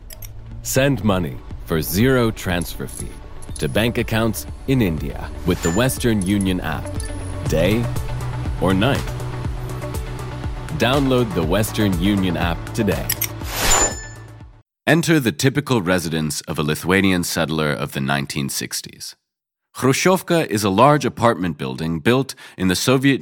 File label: English